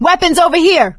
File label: spot weapons, survivor voice; Left 4 Dead 2; producer